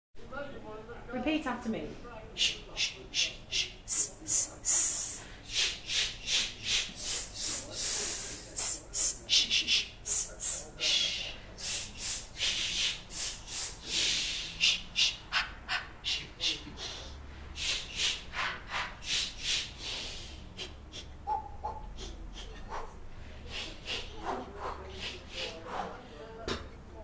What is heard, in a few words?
Short Consonant Sounds